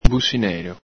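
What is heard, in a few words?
"businelo", Veneto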